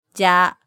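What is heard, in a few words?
"ja", Japanese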